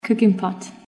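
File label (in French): "marmite", English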